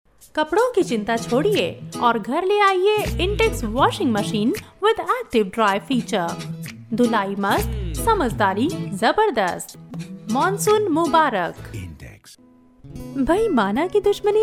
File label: TVC